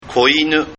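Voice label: "koinu", Japanese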